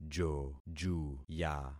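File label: "jojuya", concatenated syllables; Affixing experiment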